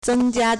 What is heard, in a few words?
"zeng1jia1", Chinese